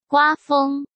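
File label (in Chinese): "刮风", Mandarin Chinese; chinese-flashcards; hsk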